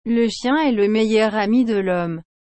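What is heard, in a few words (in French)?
"Le chien est le meilleur ami de l'homme", French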